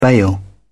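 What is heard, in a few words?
"bail out", British English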